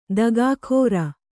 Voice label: "ದಗಾಕೋರ", kannada